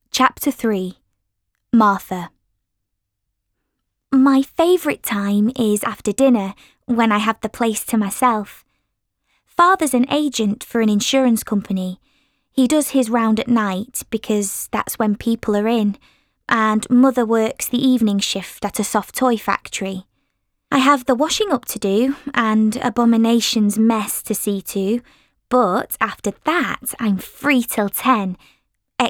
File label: Audiobook